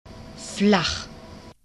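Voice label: "flach", German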